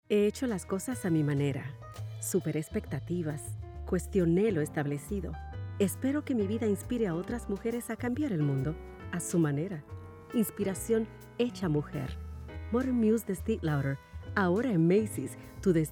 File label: voice over demo